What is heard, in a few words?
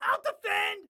Self Defend Defend, Excited Guy